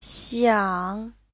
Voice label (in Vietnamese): "xiang", Hán ngữ